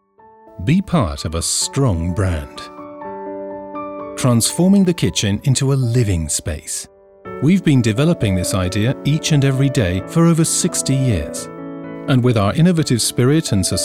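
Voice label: English UK, Werbung Freundlich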